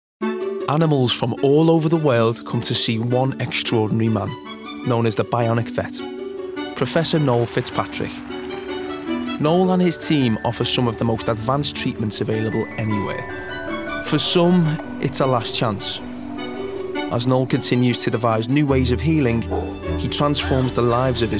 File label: male English Scouse accent commercial; the supervet